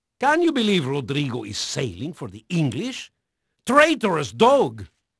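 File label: Portuguese male, voice; Pirates Of The Caribbean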